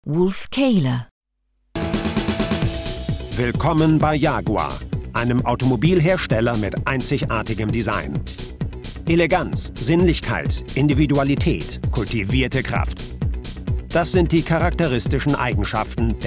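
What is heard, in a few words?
german commercial corporate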